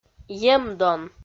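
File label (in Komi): "емдон", Komi, female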